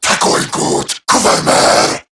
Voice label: Cover Me Corrupted Grineer Male